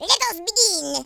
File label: "come on then", French; speech